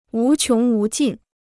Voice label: "无穷无尽", Chinese